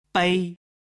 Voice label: "bei", Chinese

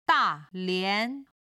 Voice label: "da4 lian2", Chinese

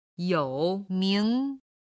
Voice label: "you3ming2", chinese

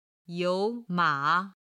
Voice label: "you3 ma3", Chinese

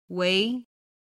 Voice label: "wei", Chinese

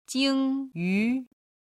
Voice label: "jingyu", chinese

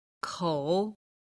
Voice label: "kou3", chinese

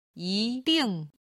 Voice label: "yi2ding4", chinese

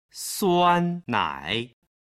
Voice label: "suannai", Chinese

